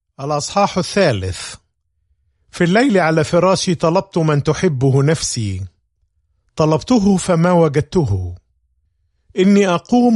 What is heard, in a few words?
Song of Solomon, arabic